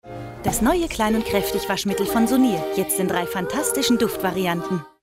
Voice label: Surf Bubbles VO German; Werbung